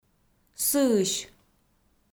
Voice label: "сыысь", Komi